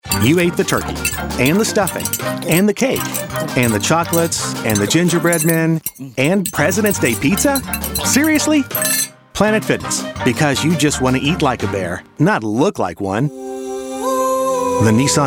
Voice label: COMMERCIAL STEREO MIX